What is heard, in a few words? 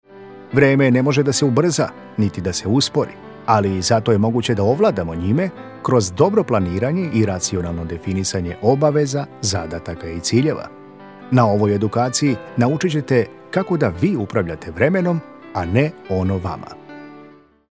serbian elearning voice